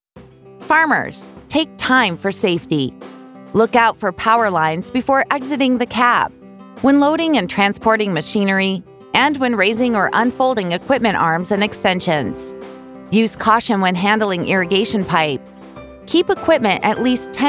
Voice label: Spot w music female voice